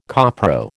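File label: "copro", American English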